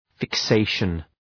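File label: "fixation", English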